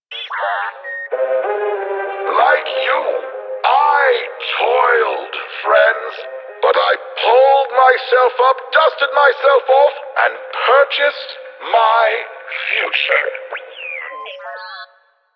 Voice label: Kiosk Advert; Warframe; Corpus Ship; Adverts